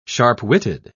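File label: "sharp-witted", English word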